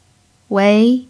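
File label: "wei2", Mandarin pinyin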